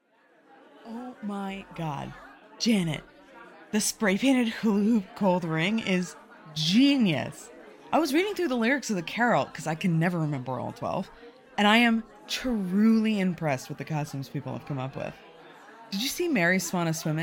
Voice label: F4M, SFX, Erotic audio; The Partridge is IN the Pear Tree!; 12DaysChain; Friends to New Lovers; Singing

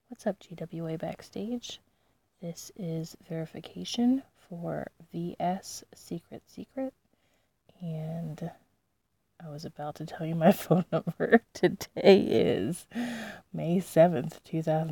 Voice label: [Verification][Female]; GWABackstage

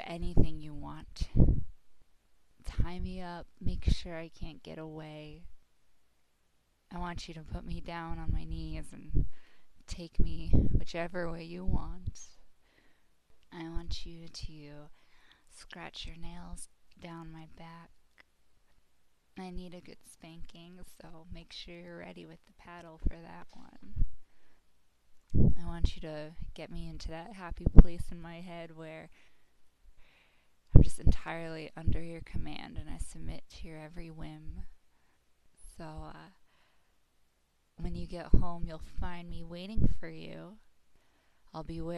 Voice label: I miss you - Voicemail

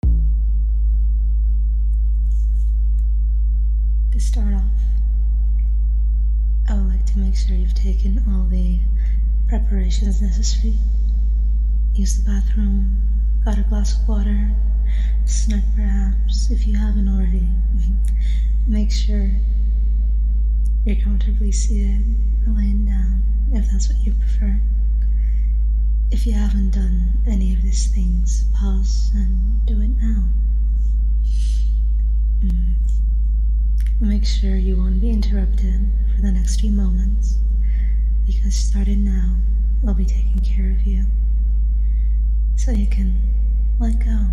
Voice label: Hypnosis Audio Forest Induction; Hypnosis Audio - Forest Induction - Version 1; [F4A][SFW]

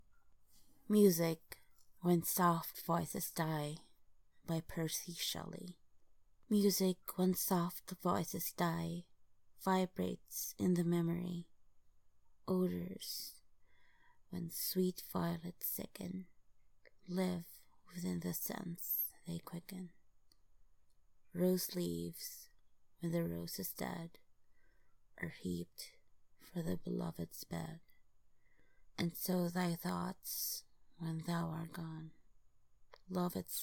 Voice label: F, Poem, romantic; Music When Soft Voices Die; Poem by Percy Bysshe Shelley

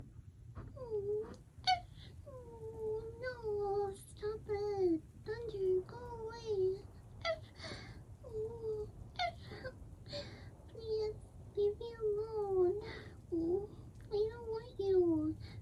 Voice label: F4M, Storm, SFX; Your Scared Puppy Girl Needs Your Cuddles During a Storm!; Petplay

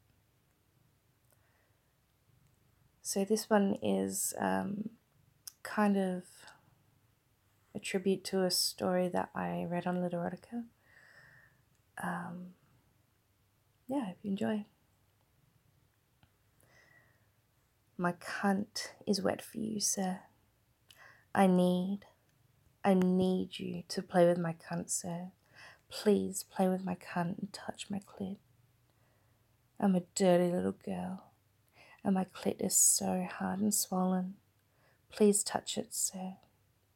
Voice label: Begging

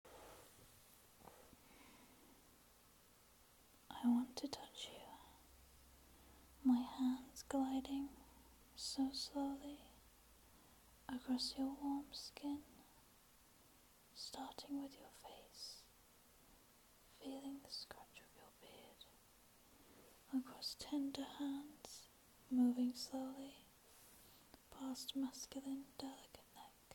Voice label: Erotic poetry, Sensual; F4M; Slow Touch; Roaming hands; Sensory

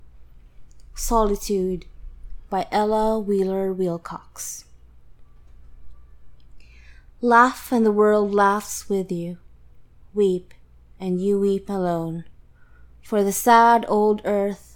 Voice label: F4A, Poetry; Solitude; reflection; life; Poetry by Ella Wheeler Wilcox

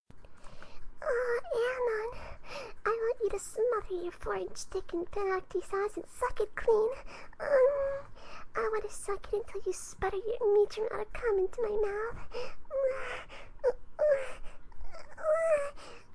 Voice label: moaning quack moo; Vocaroo; Fluttershy

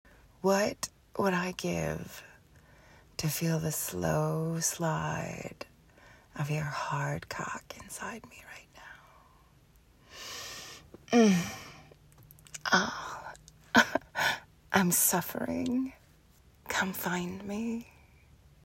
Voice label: voicemail, need, tease, urgent, laughter; F4M

